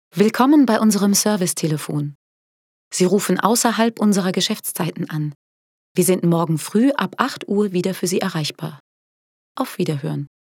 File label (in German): telefonpaket anrufbeantworter